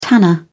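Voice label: "tanner", British English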